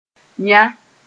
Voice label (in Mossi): "yã", moore